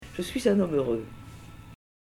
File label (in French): Homme heureux